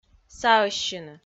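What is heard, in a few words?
"саӧссьыны", Komi, female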